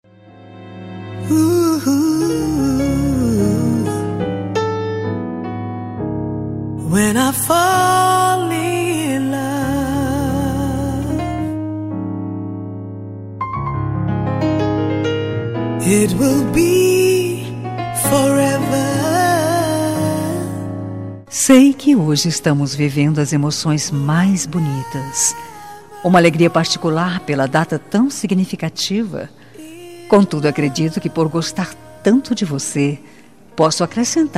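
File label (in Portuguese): aniversário, feminina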